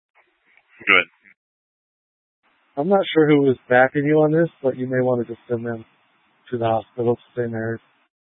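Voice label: Police Dispatch, Scanner audio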